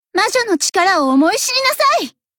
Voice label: warcry